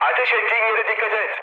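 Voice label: Turkish player friendly fire, mood med, speech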